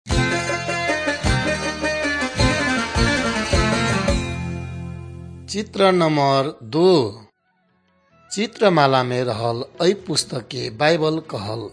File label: maithili thetiya